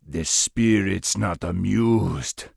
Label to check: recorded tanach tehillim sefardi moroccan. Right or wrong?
Wrong